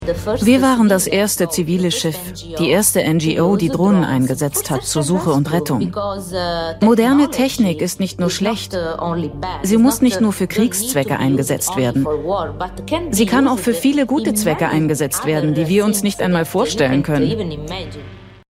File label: voice over; arte